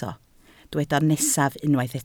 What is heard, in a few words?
"nesaf", Welsh